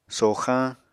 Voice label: "soxañ", Apatani; Material Culture